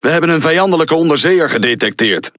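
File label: Speech Detection Enemy Sub, Dutch; World Of Warships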